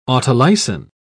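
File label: "autolysin", English, British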